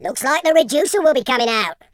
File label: i'll get you, Footy Fan